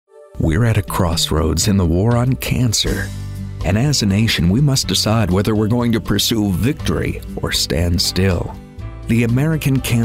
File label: genuine friendly